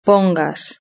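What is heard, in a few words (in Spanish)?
"pongas" Spanish; verb-conjugator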